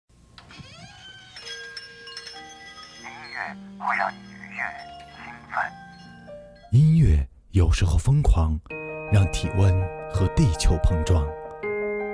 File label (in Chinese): 台宣; 泰山区